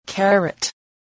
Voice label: "carrot", spelling